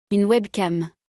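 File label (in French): "Une webcam", French; vocabulaire; le lexique de l'informatique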